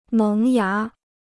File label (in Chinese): "萌芽", Chinese